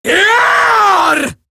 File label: Taunt, Female; Warframe; Eximus Grineer Female; Battle Barks